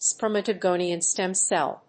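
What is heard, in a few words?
"spermatogonial stem cell", English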